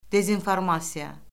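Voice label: "dezinformasiya", Azerbaijani; Orfoqrafiya Lüğəti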